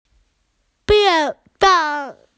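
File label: "mian4fen3", Mandarin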